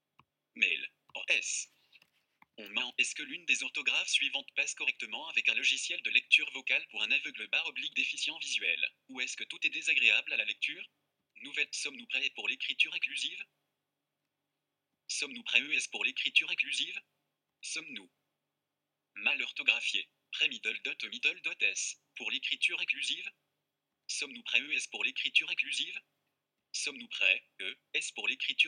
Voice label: Voice Over